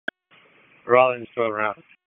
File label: PS TAC, p25; wake p25